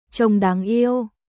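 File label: "Trông đáng yêu", Vietnamese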